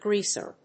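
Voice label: "greaser", English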